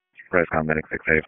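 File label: Wake County EMS Dispatch, p25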